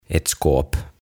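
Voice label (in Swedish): "ett skåp", Swedish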